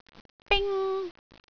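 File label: "bing1", Cantonese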